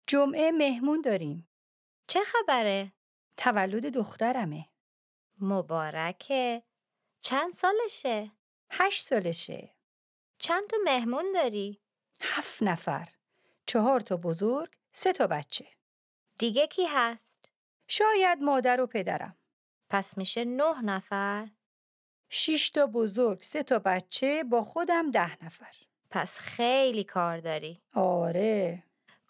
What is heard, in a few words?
Dialogue lesson Farsi